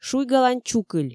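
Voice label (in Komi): шуйгалань чукыль, Komi, female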